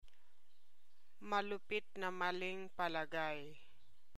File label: Tagalog; harsh prejudice - malupit na maling palagay